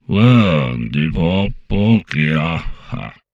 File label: humanoid portrait male; Stellaris; humanoids